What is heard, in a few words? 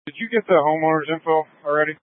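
Incident Tac, Scanner audio recording; Incident Tac 1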